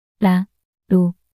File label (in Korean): "라로" 낱소리 여자